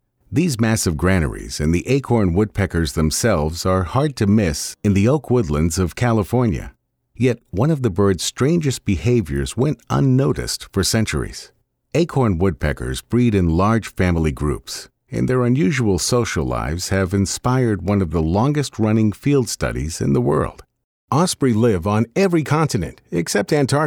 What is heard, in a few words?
documentary